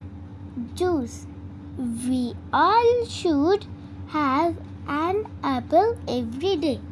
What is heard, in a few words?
noisy reference